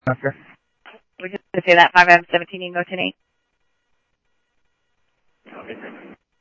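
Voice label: Mesa Police Department, scanner audio; Mesa Simulcast; MESA PD 1 Fiesta MesaPoliceDep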